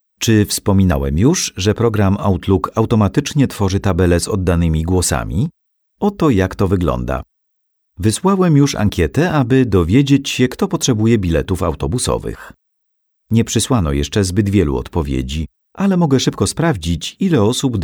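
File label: e-learning, male, PL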